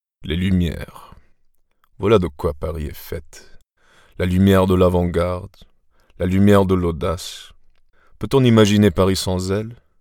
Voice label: Frankreich M

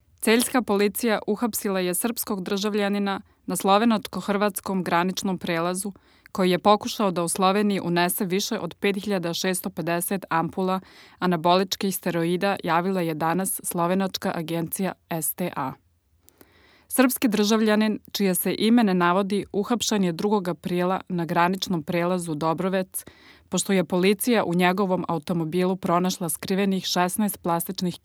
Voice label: Serbien W

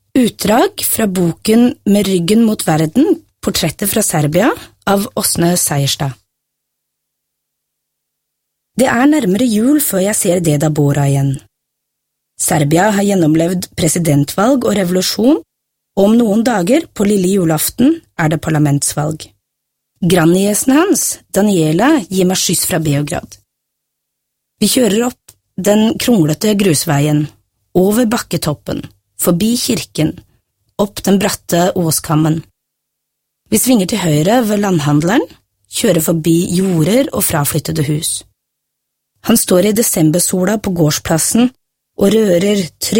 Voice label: Norwegien W

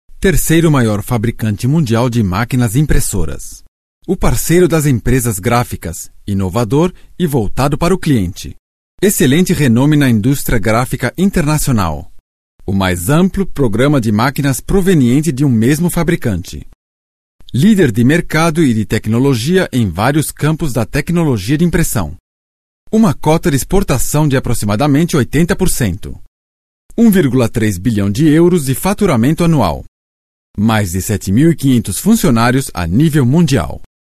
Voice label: Brasilien M

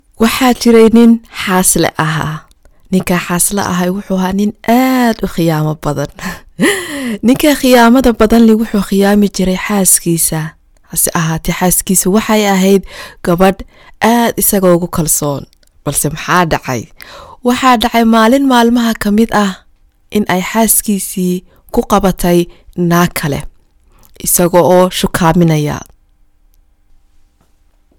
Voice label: Somalien W1A, voiceactors

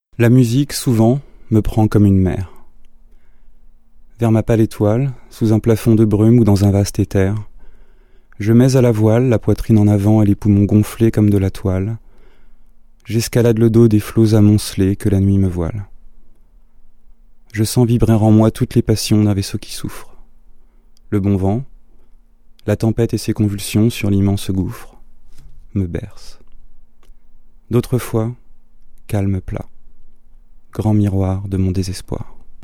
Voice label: Frankreich M

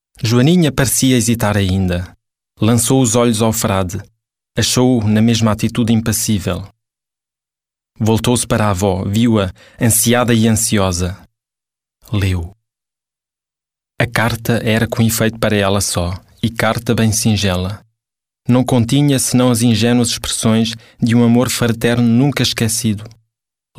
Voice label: Portugal M

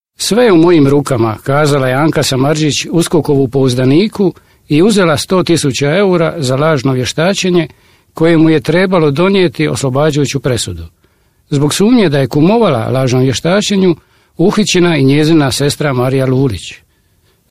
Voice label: Kroatien M

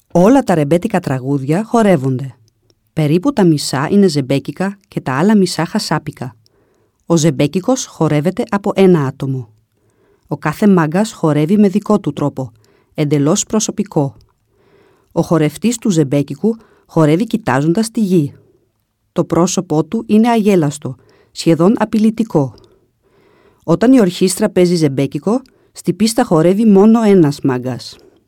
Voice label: Griechenland W3, voiceactors

